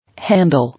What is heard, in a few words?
"Handle", English